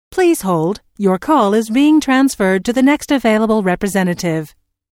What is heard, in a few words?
Telephone prompt: Please hold - Your call is being transferred to the next available representative, Pre-recorded telephone on-hold message; Recorded Voice Sound Effects